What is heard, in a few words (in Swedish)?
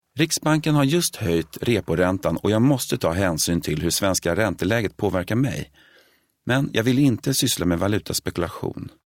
svensk man